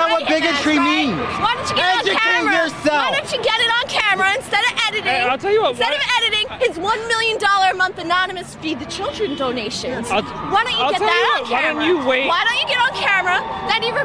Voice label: female trump supporter crashes live interview; live msnbc interview; No Agenda